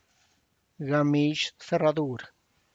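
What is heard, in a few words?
traditional festive blouse made in Tlacochahuaya, Tlacochahuaya Zapotec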